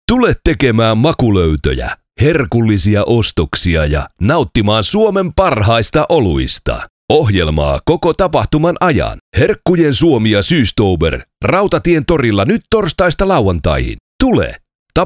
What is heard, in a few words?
Commercial Finnish